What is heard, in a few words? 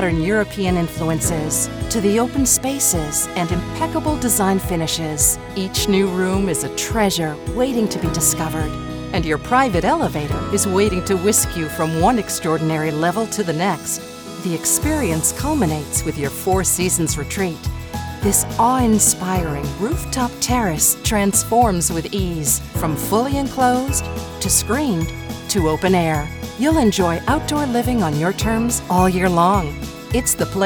Luxury Townhomes Friendly Warm Sincere